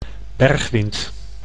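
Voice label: "bergwind", Dutch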